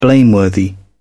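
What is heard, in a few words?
"blameworthy", British English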